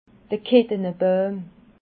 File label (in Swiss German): "kittenebauim", alsace